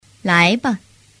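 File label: "laiba", Mandarin Chinese; thanh nhe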